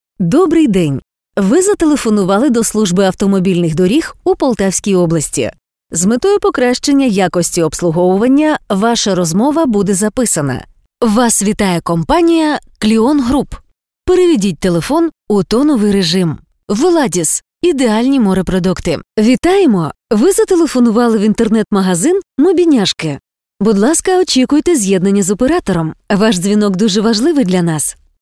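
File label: Ukrainian, female, interactive voice response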